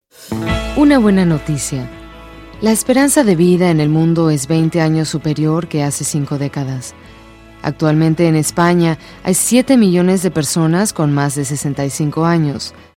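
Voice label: Latin American Spanish infomercial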